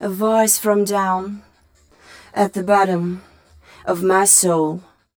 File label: fem spo, Vocals